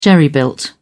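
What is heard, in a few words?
"jerry built", British English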